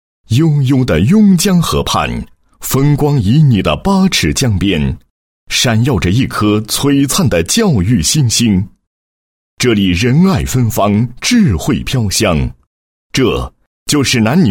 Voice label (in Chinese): 男80 学校介绍 宣传介绍; 蒲庙镇第二初级中学宣传介绍; 央视农广